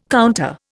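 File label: "counter", Indian English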